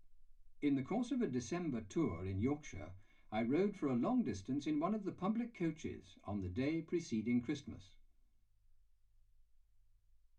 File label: male speech english